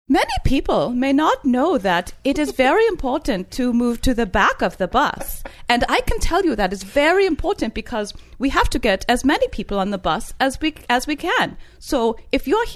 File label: speaking as bus driver with scandinavian accent